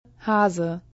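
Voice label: "Hase", German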